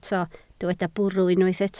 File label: "bwrw", Welsh